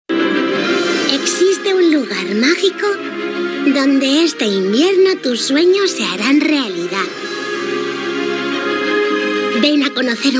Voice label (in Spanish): Publicidad infantil